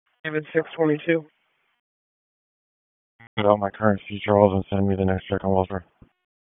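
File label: Police Southwest District Dispatch, p25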